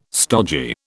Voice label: "stodgy", British

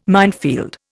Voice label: "minefield", Indian

